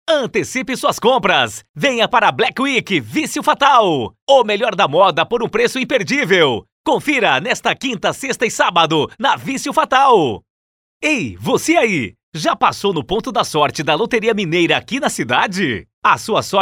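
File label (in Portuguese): VOZES MASCULINAS, Padrão Motivacional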